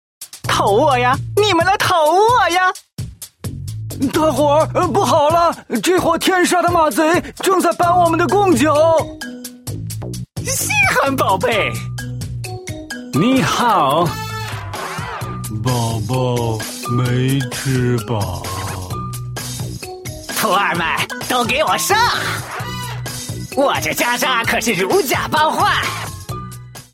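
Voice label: male other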